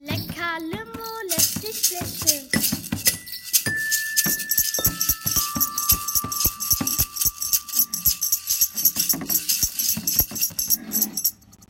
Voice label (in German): Radio Werbung; radio werbung vom jahrgang 3 an der gss